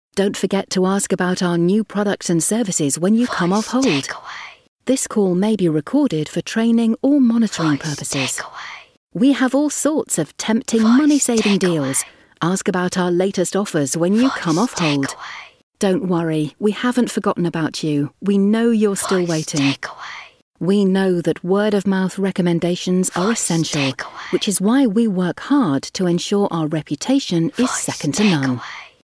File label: Startups Smooth Female